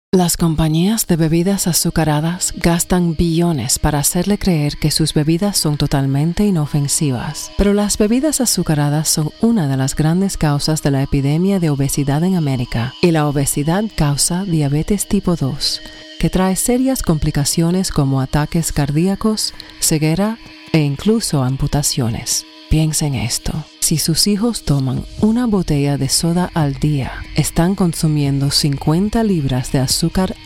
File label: SPANISH Narr PSA